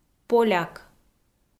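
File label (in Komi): "поляк", Komi, female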